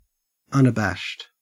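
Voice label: "unabashed", Australian English; pronunciations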